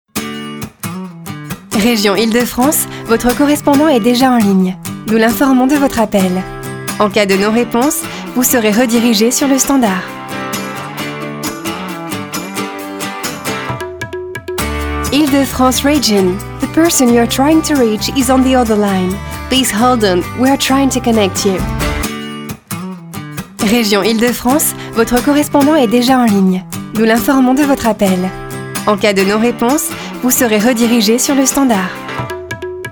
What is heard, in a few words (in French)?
Repondeur Français Anglais; Region IDF